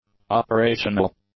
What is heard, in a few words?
"operational", English; adjectives